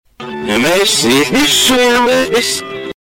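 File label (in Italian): "è mezzi", Italian dialect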